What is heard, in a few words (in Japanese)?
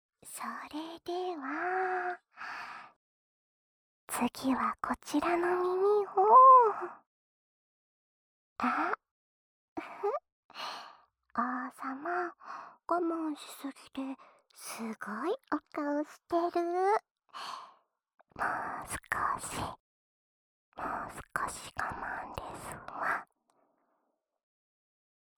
耳なめ隠語ささやき（左耳）, ASMR